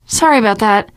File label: sorry, teen girl voice; survivor voice